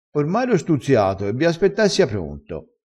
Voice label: "stuzzia", crespinese

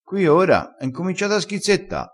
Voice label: "schizzetta", crespinese

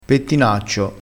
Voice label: "pettinaccio", crespinese

